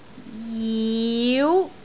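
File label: "jiu2", Cantonese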